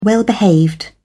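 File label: "well-behaved", British English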